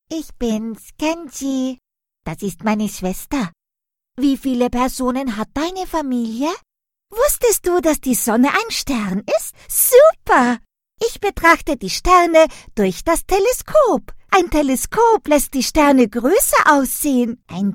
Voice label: character cartoon kids

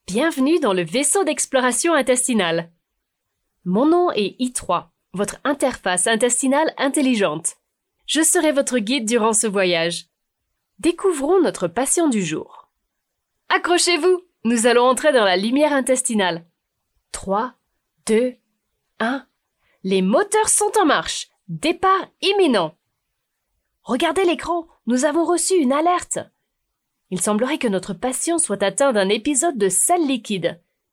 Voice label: French Medical eLearning Game

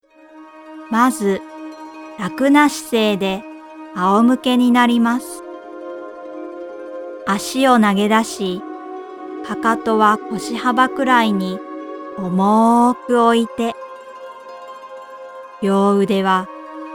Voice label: Guided Meditation

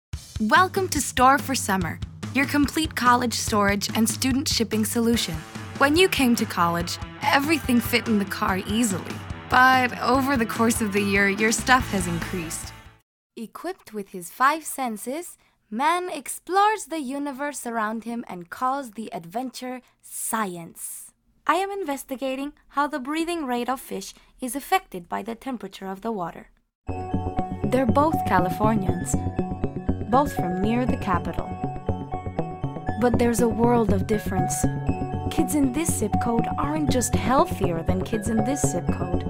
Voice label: English

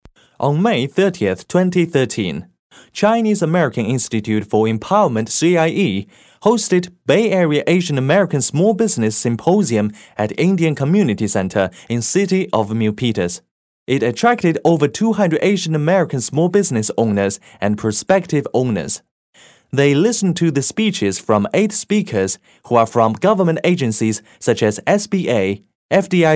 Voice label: English Corporate

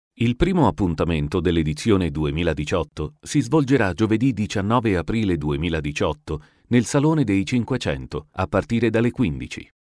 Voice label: GENERAL Male Italian

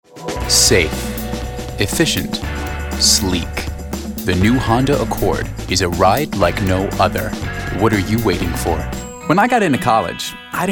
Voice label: Announcer

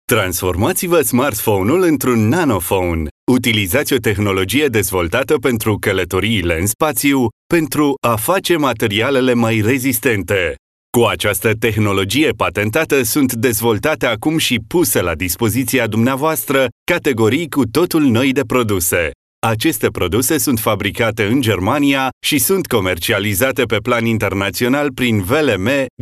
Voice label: RO M Telleshopping

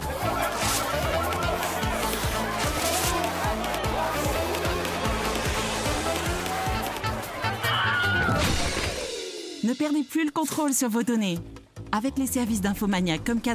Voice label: FR; INFOMANIAK

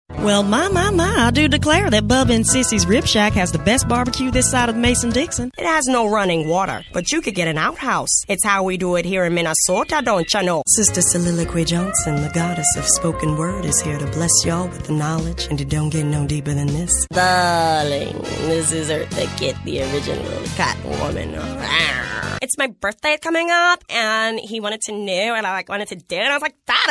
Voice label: American English Character

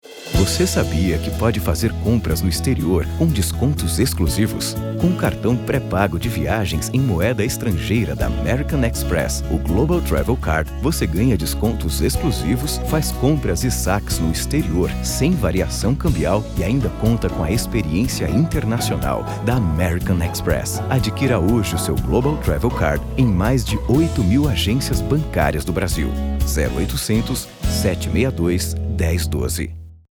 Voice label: Spot; AMEX GTC

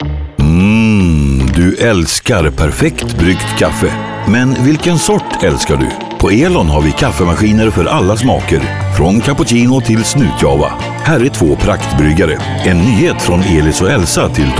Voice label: Friendly Inviting

